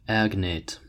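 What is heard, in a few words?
"agnate", American English; pronunciations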